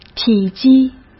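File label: "体积", Mandarin Chinese; hsk; chinese-flashcards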